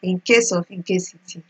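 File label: "incase of incasity", Nigerian Pidgin, female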